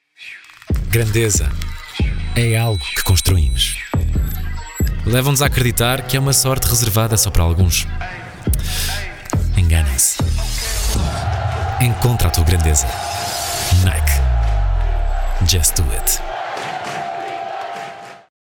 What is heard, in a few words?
Spot; Nike